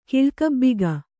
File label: "ಕಿಳ್ಕಬ್ಬಿಗ", kannada